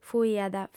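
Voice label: "fuiat", Sardinian, female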